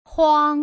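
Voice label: "huang", Putonghua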